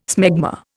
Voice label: "smegma", Indian